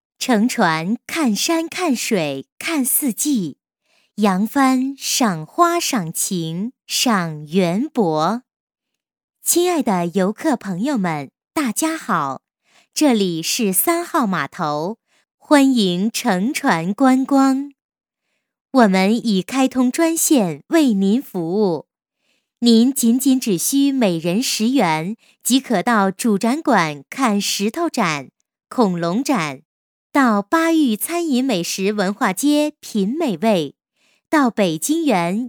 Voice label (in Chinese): 女53 广告, 国语女声配音; 三号码头; 省台女配